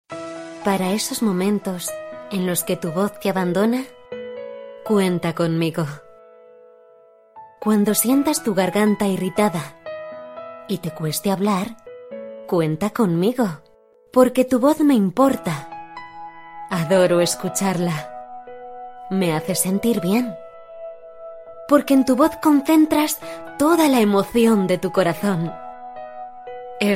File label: Spanish Spain